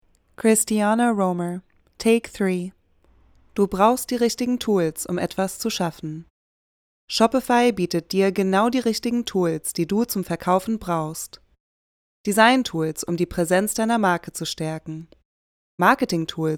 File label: German Commercial Serious; Shopify